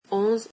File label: "eleven", french